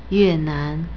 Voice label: "yuenan", Chinese; Vietnam = Yue4-nan2